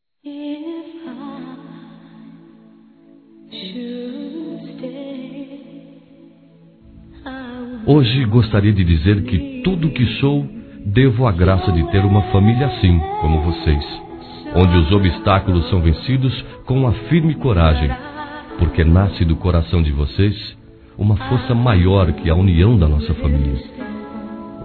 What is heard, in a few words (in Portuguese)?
Aniversário Casamento Pais Masculino; Telemensagens 2017; Aniversários